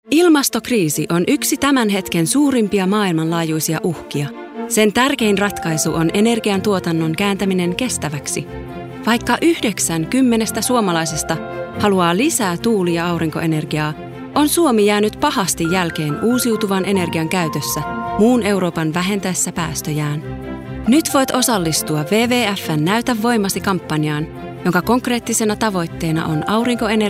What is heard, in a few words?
Finnish corporate, finnish